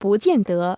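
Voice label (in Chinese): "不见得" Chinese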